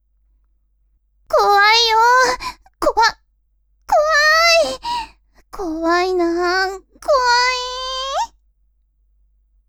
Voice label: woman